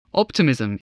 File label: "optimism", English voice